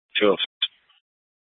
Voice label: Scottsdale PD Sth Dispatch, scanner